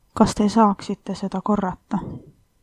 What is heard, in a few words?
say again, estonian